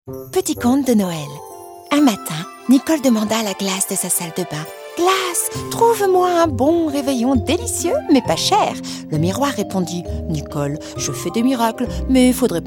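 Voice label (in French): Noel recit gentil, comédienne voix off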